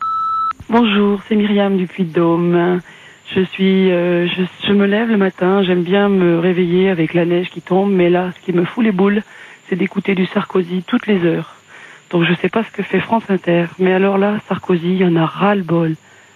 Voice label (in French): répondeur; Nicolas Sarkosy